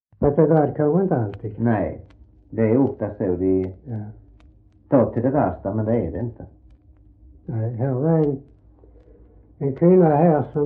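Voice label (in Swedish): Röstånga Skåne, Svenska sagor, dialekter; Folkminnen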